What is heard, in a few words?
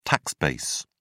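"tax base", British English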